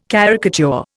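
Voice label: "caricature", Indian English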